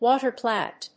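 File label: "Water Plant", English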